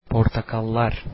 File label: oranges, turkish